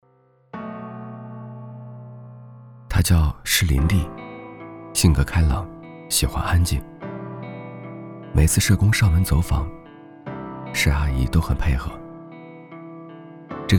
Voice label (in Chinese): 其他 旁白 素人自然解说, 男